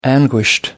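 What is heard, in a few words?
"anguished", British English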